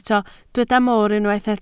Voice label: "moor", Welsh

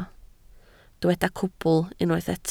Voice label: "cwbl", Welsh

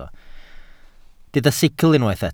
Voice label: "sicl", welsh

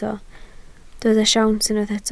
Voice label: "siawns", Welsh

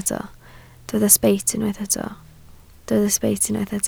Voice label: "sbeit", Welsh

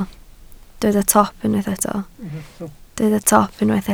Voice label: "top", Welsh

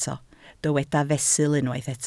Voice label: "fesul", Welsh

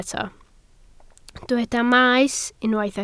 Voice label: "maes", Welsh

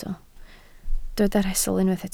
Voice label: "rhestl", Welsh

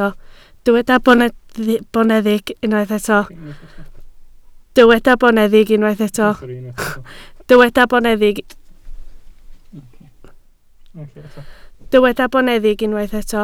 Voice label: "boneddig", Welsh

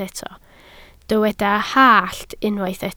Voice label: "hallt", Welsh

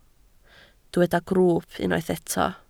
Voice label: "grwwp", Welsh

